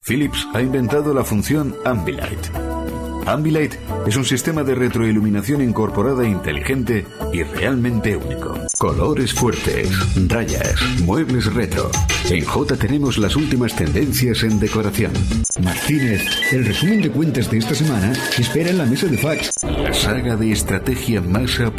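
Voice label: ES M corporate, Spanish, male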